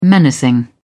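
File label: "menacing", British English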